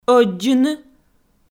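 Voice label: "ӧддзыны", Komi, female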